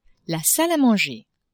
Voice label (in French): "salle a manger", French; maison